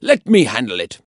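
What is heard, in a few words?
Voices Units Allied Grenadier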